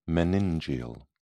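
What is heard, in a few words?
"meningeal", British English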